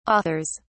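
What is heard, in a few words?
"authors", British English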